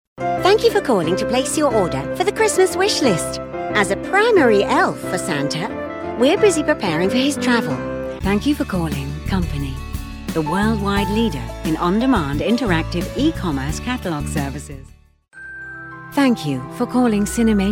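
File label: English British, female, english british voice over talent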